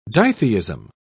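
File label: "ditheism", British English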